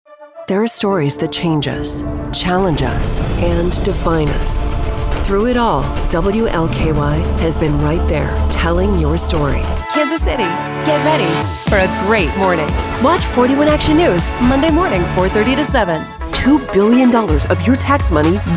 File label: tv affiliate women